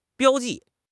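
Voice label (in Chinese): "标记", Chinese; HSK6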